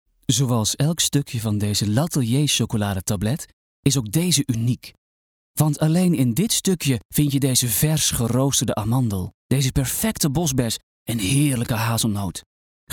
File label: Warm